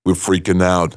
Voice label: Phrase Pitched; Vengeance Samples